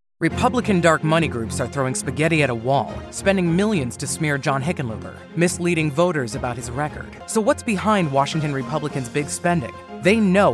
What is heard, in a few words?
gravitas powerful serious political intense forceful dynamic convincing